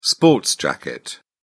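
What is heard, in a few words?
"sports jacket", British English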